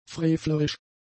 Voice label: "frevlerisch", German; adjectives